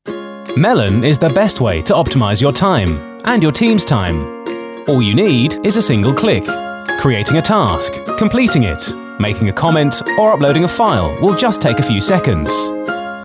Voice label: Product Explainer